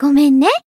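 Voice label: finish, Japanese; channon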